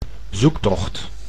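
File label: "zoektocht", Dutch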